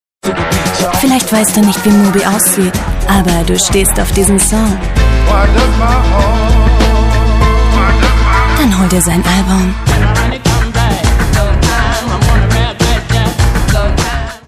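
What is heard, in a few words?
German, female, commercial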